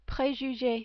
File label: "prejuge", French